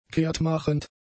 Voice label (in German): "kehrtmachend", German; adjectives